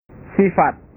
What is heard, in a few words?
"sifat", bahasa